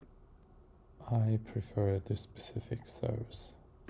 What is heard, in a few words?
neutral